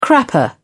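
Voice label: "crapper", English, British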